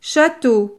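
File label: "chateau", French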